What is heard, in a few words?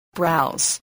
"browse", spelling